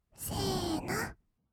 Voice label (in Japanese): "せーの", kawaii voice; ASMR; 1200 kawaii voice!!; 音ゲー